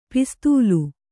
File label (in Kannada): "ಪಿಸ್ತೂಲು", Kannada